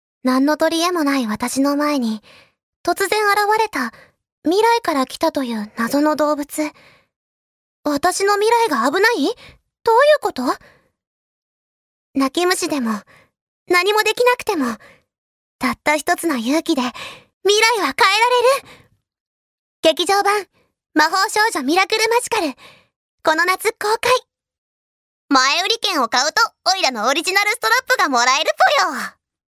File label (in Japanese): f syozyo; nenrei